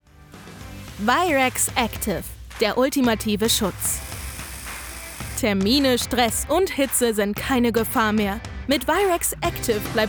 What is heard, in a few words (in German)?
Deowerbung